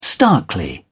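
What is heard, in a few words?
"starkly", British English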